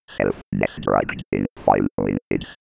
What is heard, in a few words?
old computer voice